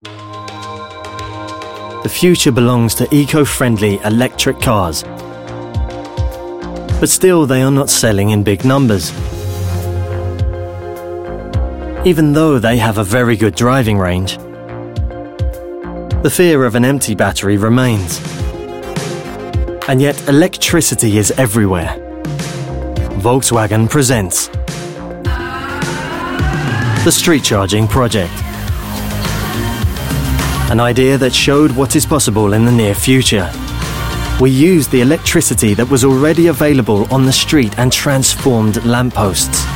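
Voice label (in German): British werbung, sprecher englisch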